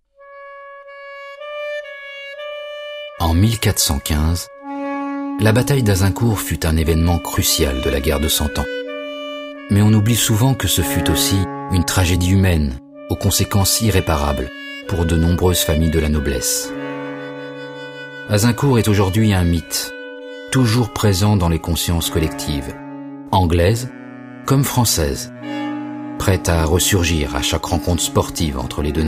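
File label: French, male, narration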